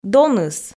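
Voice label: "доныс", Komi, female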